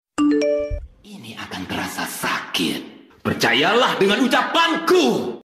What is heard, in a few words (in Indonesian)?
nada notifikasi suara hero dingin selanjutnya